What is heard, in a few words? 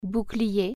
shield, french